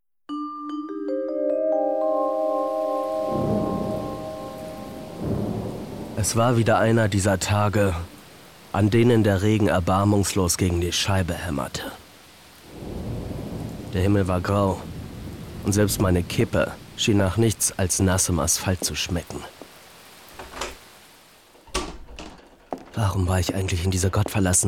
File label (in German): Hoerspiel